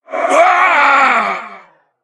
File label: Male Death